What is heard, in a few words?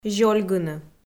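"зёльгыны", Komi, female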